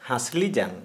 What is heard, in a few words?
"Shoulder blade", Santali